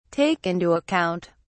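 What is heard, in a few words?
"take into account", British English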